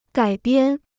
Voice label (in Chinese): "改编", Chinese